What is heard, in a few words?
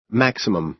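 "maximum", American English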